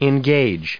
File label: "engages", us